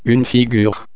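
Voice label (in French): "figure", French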